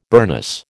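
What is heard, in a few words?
"burnous", American English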